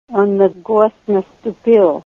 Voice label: he stepped on a nail, Ninilchik Russian; On na gwos' nastup'íl.; gwos' ГВОЗДЬ; tools

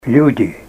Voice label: people, Ninilchik Russian

